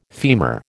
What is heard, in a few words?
"femur", American